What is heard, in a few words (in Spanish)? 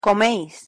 "comeis", pronunciation es